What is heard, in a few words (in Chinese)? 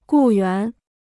"雇员", Chinese